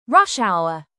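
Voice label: "rush hour" British English; Time, history; Thời gian, lịch sử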